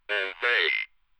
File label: Speak Spell Glitch "Obey"; Audiowanderer